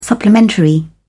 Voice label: "supplementary", en uk